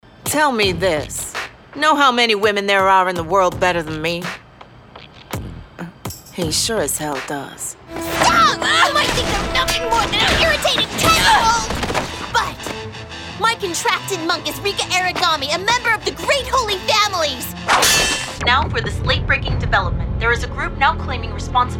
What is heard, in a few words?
Anime